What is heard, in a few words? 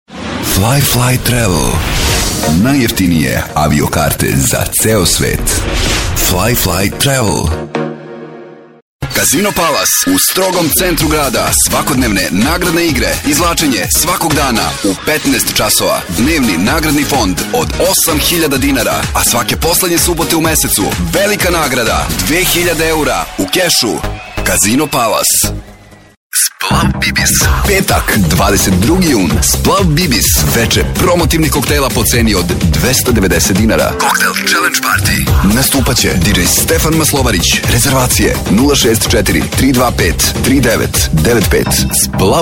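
serbian male commercial; voice demos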